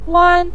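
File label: "wan" neutral tone, Mandarin Chinese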